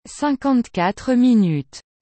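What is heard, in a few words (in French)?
"cinquante quatre minutes", French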